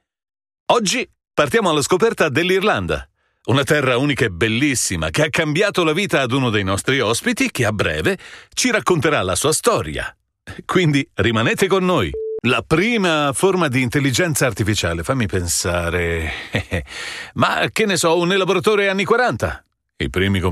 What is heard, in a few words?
podcast